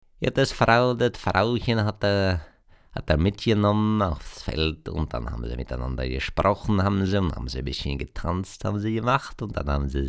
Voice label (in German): schlesisch